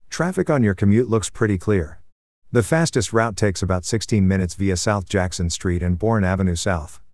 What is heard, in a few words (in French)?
nouvelle voix masculine; amazon alexa